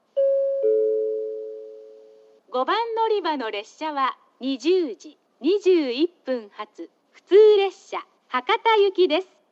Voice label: yoshizuka local hakata; 5番のりば停車中放送（普通 博多行き）; 吉塚